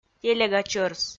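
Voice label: "телега чӧрс", Komi, female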